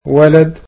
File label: "boy", egyptian